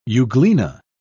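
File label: "euglena", British English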